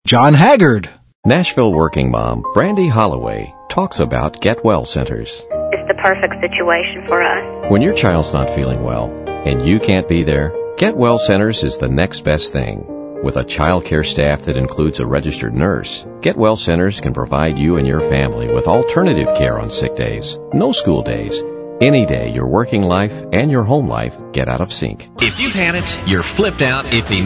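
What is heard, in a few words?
Male Talent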